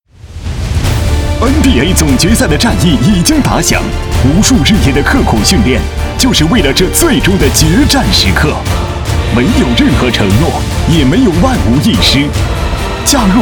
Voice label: 体育频道 宣传; 央视; NBA总决赛

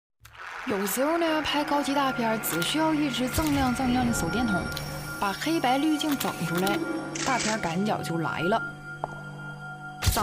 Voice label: 东北黑龙江方言女声

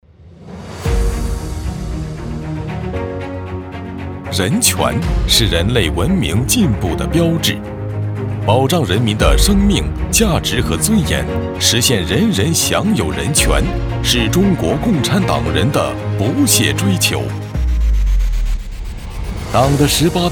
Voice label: 专题片 政论类 严肃 坚定 大气 浑厚

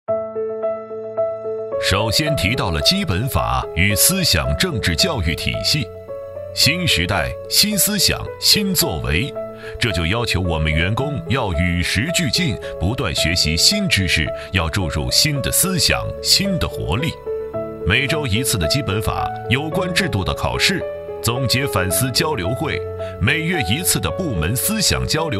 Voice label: 专题片样音

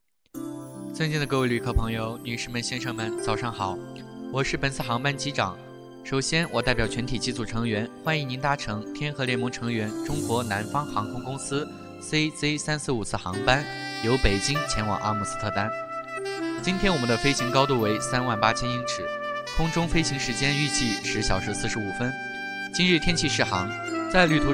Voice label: 机长广播

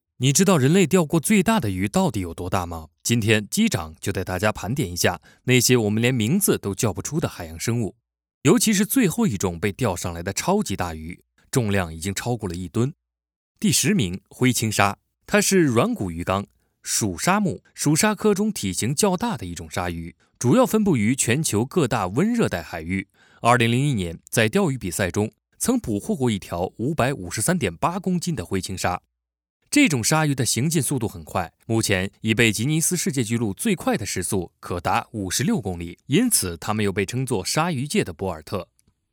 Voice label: 抖音解说; 鲨鱼

